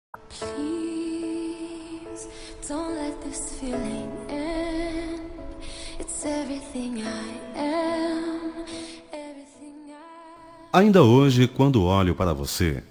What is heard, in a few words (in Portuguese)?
M FILHA, Telemensagem de Aniversário de Filha – Voz Masculina